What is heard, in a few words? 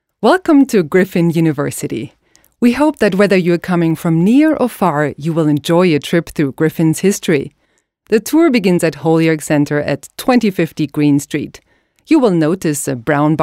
Audioguide EN